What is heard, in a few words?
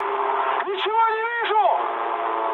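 searchlite, mood high, Russian; War Thunder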